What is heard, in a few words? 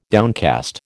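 "downcast", American English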